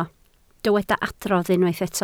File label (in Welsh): "adrodd", Welsh